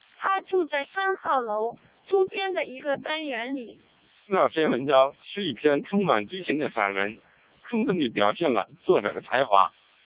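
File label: Chinese speech, CODEC2 vocoder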